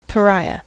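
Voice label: "pariah", US English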